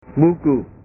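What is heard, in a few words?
"buku", Indonesian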